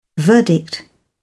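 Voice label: "verdict", British English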